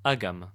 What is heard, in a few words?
male herd, latin